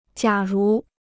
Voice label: "假如", Chinese

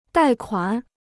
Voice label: "贷款", Chinese

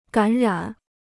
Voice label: "感染", Chinese

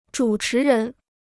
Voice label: "主持人", Chinese

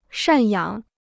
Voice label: "赡养", Chinese

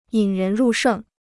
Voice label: "引人入胜", Chinese

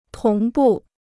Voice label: "同步", Chinese

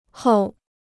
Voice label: "后", Chinese

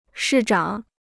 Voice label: "市长", Chinese

